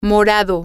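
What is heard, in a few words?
purple, Spanish, female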